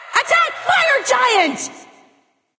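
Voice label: attack female buffed; smite; nike; voicepack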